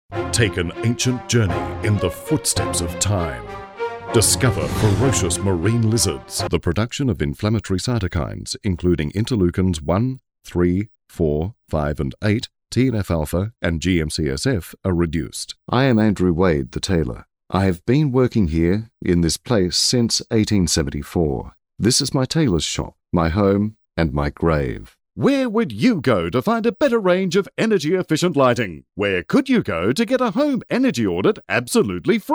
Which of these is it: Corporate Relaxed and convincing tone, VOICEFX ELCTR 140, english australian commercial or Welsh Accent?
english australian commercial